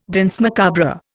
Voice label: "danse macabre", Indian